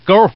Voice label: Man